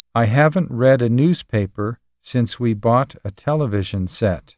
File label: Sentence Tone